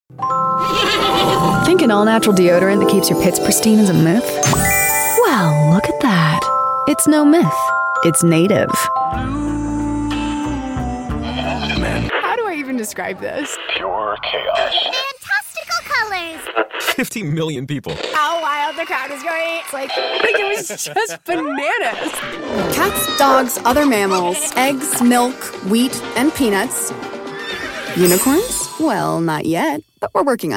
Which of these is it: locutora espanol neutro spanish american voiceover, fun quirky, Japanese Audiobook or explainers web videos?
fun quirky